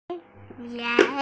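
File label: "lai2", Mandarin Chinese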